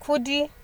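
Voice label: "kudi", Nigerian Pidgin, female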